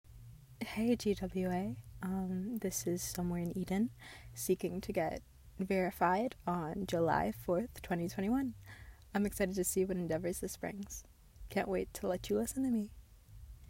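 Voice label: Female Verification Request; Erotic audio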